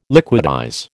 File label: "liquidize", American